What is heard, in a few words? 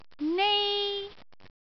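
"nei1", Cantonese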